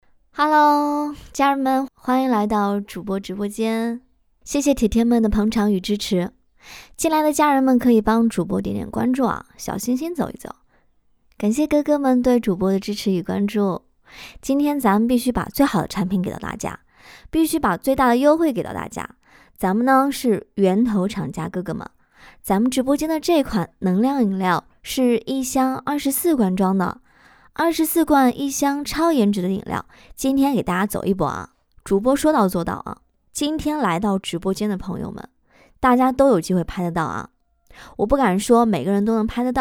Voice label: 女, 短视频-直播间带货

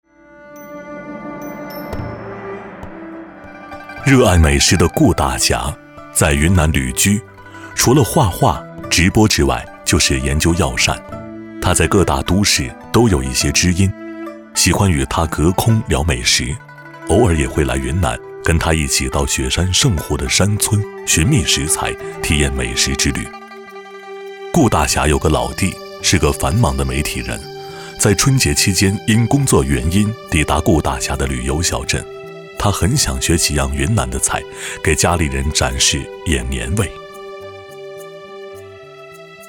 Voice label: 男 纪录片 讲述走心旁白; 舌尖纪录片; 《候鸟的盛宴》

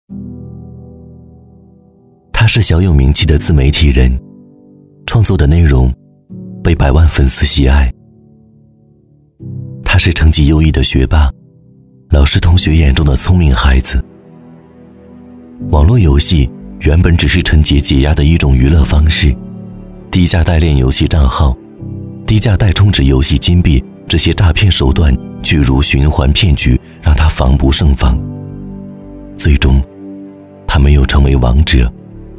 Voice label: 男 走心旁白

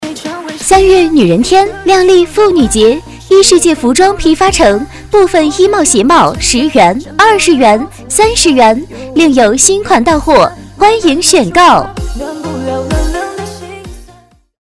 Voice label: 促销 欢快轻松 服装, 女

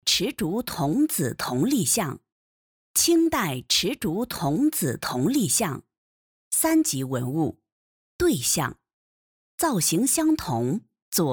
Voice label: 文化古物博物馆解说样, 女

